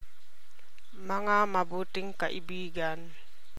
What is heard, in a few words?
TAGALOG